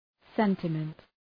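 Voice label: "sentiment", English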